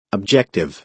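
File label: "objective", American English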